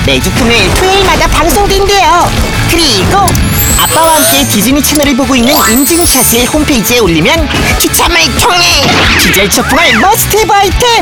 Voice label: 이벤트 프로모션; 디즈니

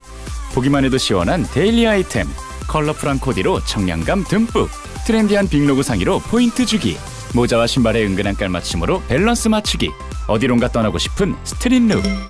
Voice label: 청량감넘치는 밝고 통통튀는

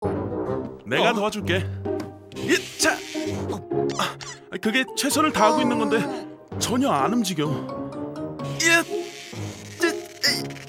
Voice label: 청년 착한 20대

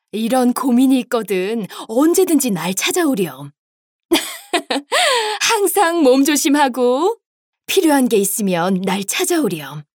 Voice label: 40대 NPC 온화 따뜻한 노인

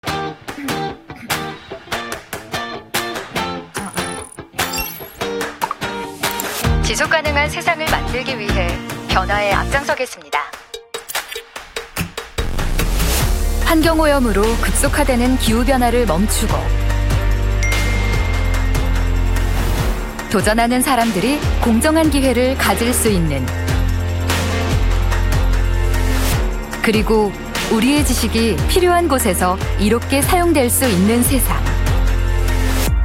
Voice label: 세련된 차분한 희망찬

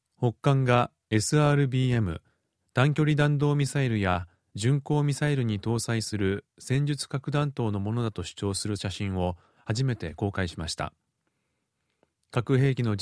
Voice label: 일본남 낮은톤; KBS World

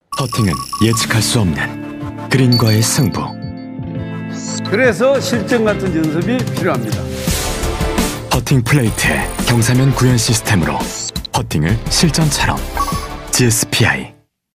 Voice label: 광고 TVC; GSPI

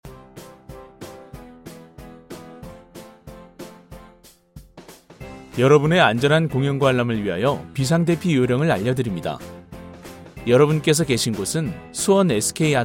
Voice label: 내레이션 안내방송; KBS

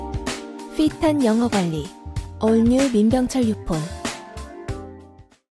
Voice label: 광고 도시적; 민병철유폰

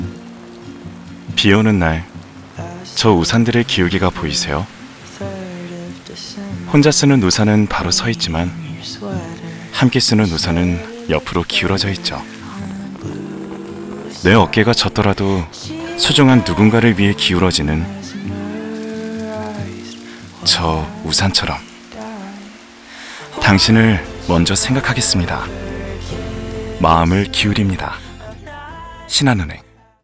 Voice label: 광고 자연스러운 포근한 친절한; 신한은행

